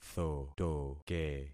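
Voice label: "thotoke", concatenated syllables; Affixing experiment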